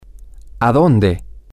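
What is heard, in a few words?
to Where, Spanish, male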